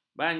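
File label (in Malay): "banci", Malay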